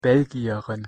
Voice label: pronunciation de belgierin